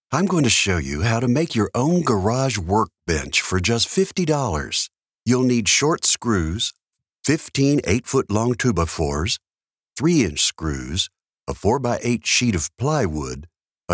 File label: english american explainer videos